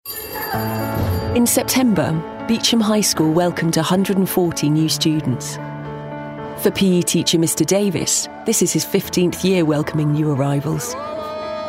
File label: Narrative Voice reel